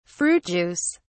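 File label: "fruit juice", British English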